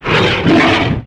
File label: pain; yeti